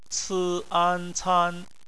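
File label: "can", bopomofo, chinese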